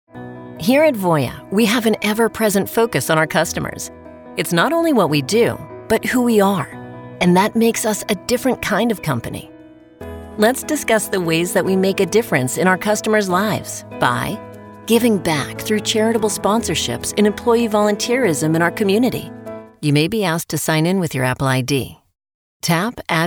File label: E Learning